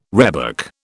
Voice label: "rhebok", British

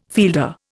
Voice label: "fielder", Indian

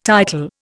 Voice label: "title", Indian

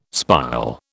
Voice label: "spile", British

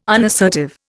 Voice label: "unassertive", Indian

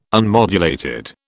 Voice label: "unmodulated", British

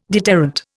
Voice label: "deterrent", Indian

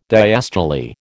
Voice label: "diastole", American

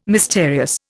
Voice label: "mysterious", Indian

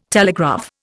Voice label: "telegraph", Indian

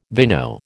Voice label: "vino", American

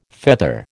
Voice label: "feather", American

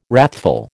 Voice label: "wrathful", American

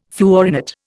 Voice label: "fluorinate", Indian

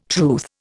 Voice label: "truth", Indian

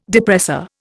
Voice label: "depressor", Indian

